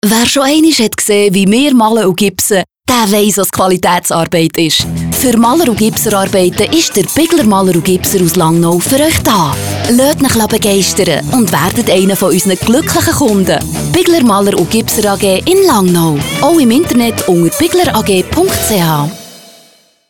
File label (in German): radiospot; Bigler Maler Gipser